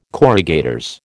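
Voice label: "corrugators", American English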